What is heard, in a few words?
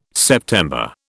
"September", British